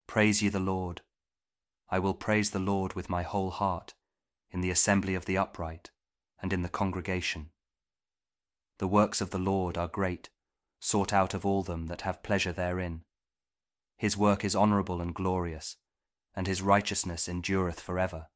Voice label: psalm kjv king james audio bible